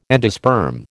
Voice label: "endosperm", American

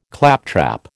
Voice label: "claptrap", American

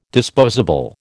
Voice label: "disposable", American

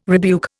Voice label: "rebuke", Indian

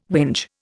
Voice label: "whinge", Indian

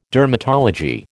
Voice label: "dermatology", American

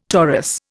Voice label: "torus", Indian

